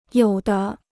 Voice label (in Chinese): "有的", Chinese